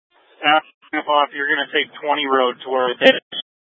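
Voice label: Incident Tactical 2, Scanner audio recording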